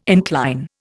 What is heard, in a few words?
"incline", Indian